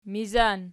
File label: "mizan", Archi